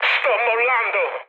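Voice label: Italian bailout mood high, speech; War Thunder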